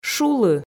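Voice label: "шулы", Komi, female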